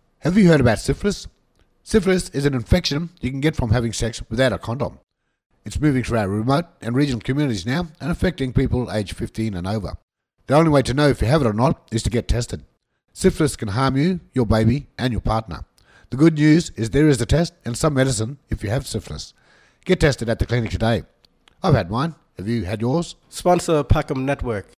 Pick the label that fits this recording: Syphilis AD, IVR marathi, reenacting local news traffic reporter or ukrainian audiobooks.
Syphilis AD